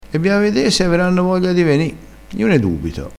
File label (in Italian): "averanno", crespinese